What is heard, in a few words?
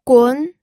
"gun2", pinyin audio